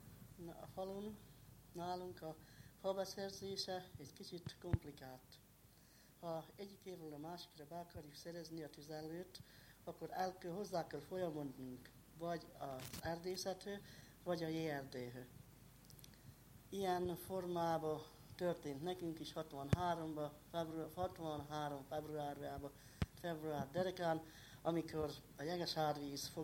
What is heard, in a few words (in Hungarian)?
nyelvjaras gyujtesek